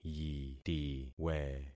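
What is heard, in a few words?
"yitiwe", concatenated syllables; Affixing experiment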